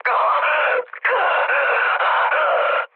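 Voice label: Korean damaged pilot mood high; speech; War Thunder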